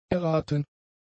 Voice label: "erraten", German; adjectives